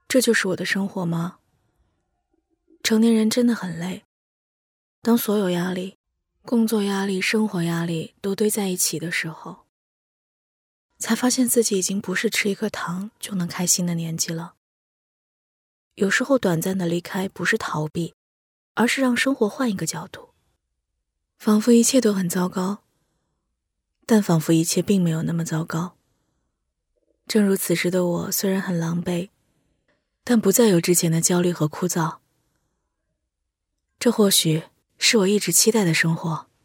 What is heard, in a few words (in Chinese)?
中文旁白素人风格